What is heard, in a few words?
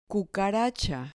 "cucaracha", Spanish